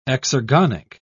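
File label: "exergonic", British English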